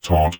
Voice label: heavy gloat; Dystopia; vox new